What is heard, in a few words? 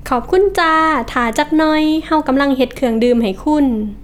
thank you, northeast, thai; taobin project